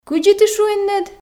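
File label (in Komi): кыдзи ті шуинныд, Komi, female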